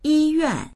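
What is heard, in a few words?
"yi1yuan4", Mandarin Chinese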